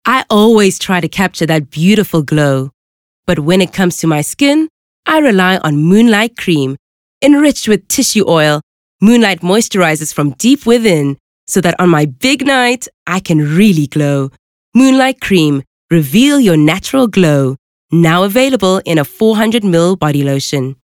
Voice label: English Softsell

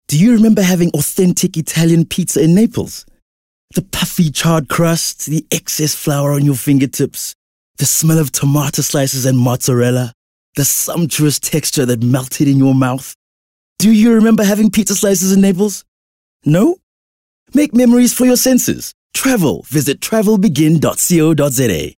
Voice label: English Conversational; OSM Talent

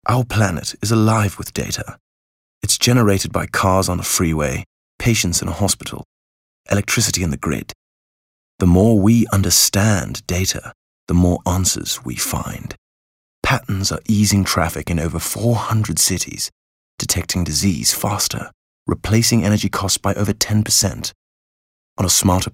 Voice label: English British Accent Corporate

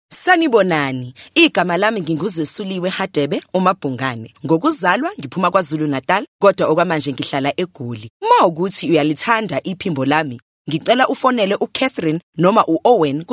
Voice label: Zulu conversational

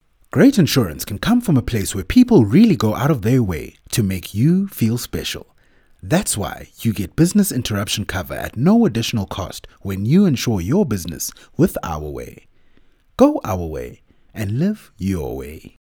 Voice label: English Corporate

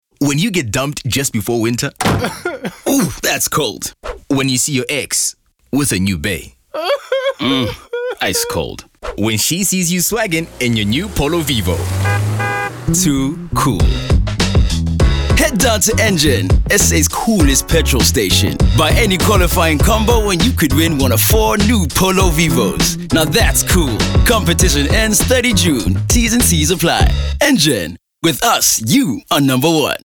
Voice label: Conversational

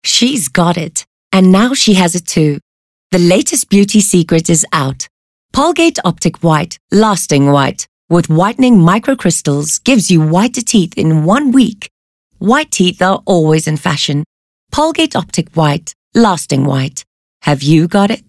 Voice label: English Bright

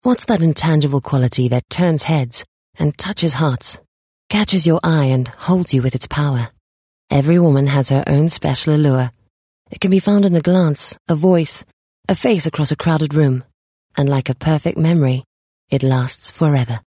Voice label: English Soft Sell British Accent

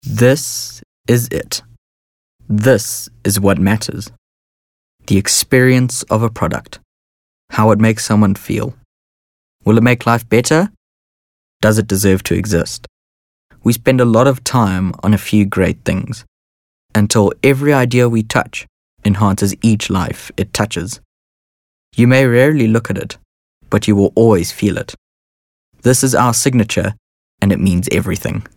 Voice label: English Softsell

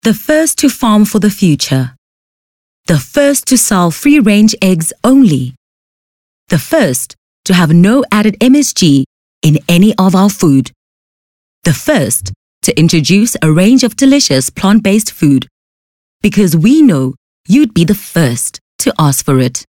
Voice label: English, corporate

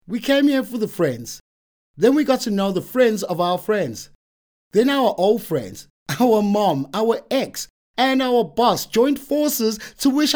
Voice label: English conversational; OSM Talent

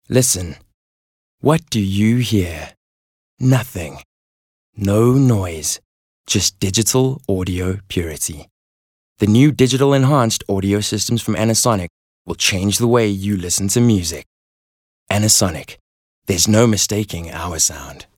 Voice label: English Intimate

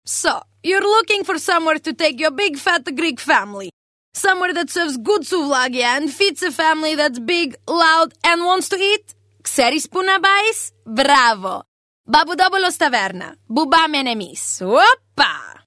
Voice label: English conversational Greek accent

